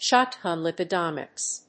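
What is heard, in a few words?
"Shotgun lipidomics", English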